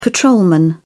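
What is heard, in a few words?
"patrolman", British English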